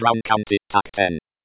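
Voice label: BC Tac 10, Computer Voice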